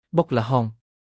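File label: "បុកល្ហុង", Khmer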